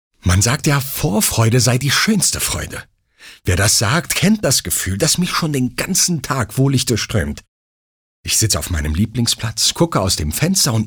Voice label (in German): Frech